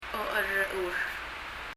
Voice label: laughing, パラオ語; 笑っている